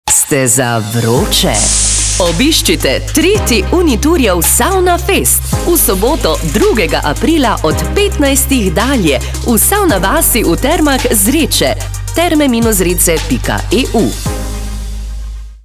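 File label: Slovenian, female, commercial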